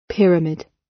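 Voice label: "pyramid", British; Space, the planets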